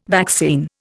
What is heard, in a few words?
"vaccine", Indian